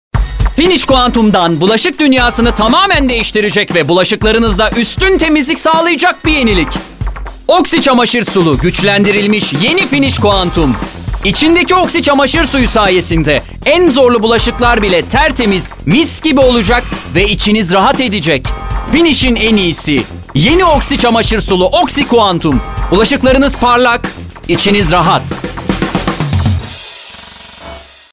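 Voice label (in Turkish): reklam, Turkish; finish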